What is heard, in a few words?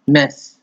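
"mess", Nigerian Pidgin, male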